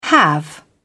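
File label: "have", English, uk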